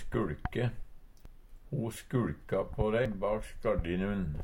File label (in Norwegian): "skuLke", Numedalsmål; Verb